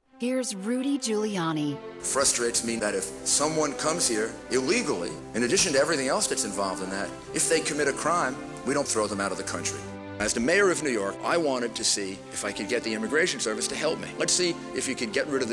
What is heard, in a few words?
radio ad